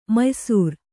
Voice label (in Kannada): "ಮಯ್ಸೂರ್", Kannada